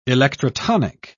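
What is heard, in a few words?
"electrotonic", British English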